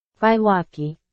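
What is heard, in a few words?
Burmese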